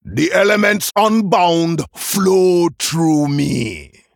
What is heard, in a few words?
Male Troll Play